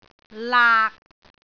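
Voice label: "laak6", Cantonese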